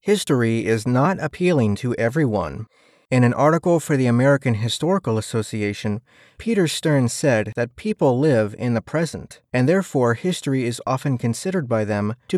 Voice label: e-learning samples